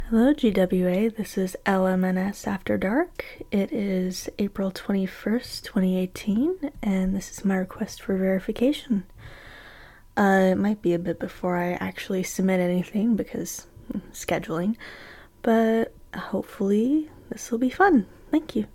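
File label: Female verification; GWA Verification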